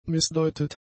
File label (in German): "missdeutet", German; adjectives